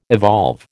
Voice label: "evolve", American

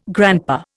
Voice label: "grandpa", Indian

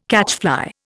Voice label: "catchfly", Indian

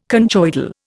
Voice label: "conchoidal", Indian

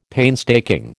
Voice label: "painstaking", American

